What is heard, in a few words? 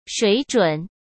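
"水准", Mandarin Chinese; hsk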